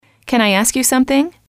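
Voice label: can I ask you something, female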